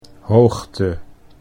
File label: "hoogte", Dutch; 'height'